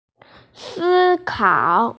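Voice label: "si1kao3", Mandarin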